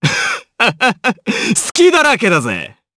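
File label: Vox Skill, Japanese; Kibera; kingsraid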